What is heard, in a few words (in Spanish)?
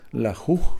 diez, Kakchikel, Números